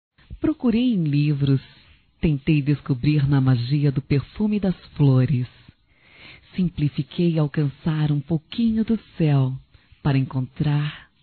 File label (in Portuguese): Aniversário Romântico Feminino; Telemensagens 2017; Aniversarios